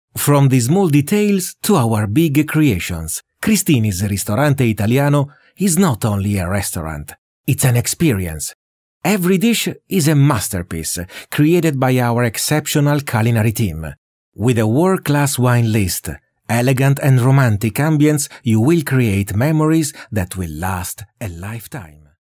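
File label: Italian, male, English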